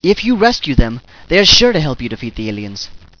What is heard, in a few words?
ship rescue them, scientist